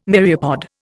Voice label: "myriapod", Indian